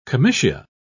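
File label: "comitia", British English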